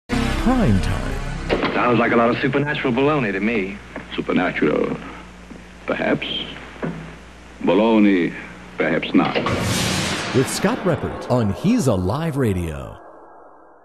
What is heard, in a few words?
promo